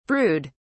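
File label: "brewed", English, British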